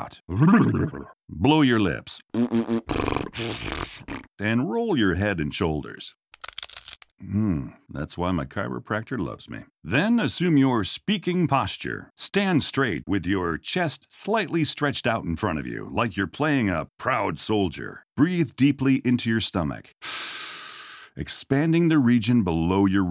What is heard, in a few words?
Voice Over US Speaker